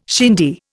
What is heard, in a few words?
"shindy", Indian